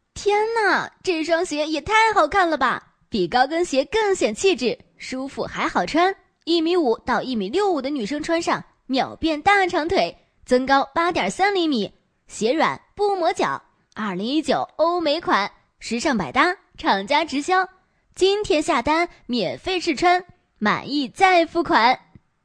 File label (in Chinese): 视频卖货; 女; 抖音视频配音